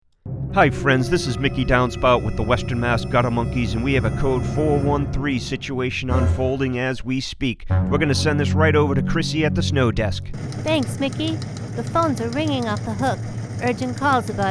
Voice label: Roof Maint radio ad; WMGM